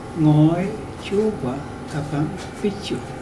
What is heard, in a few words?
I don't see the pig, Mokilese